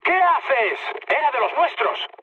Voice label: player killed ally, mood med, speech; War Thunder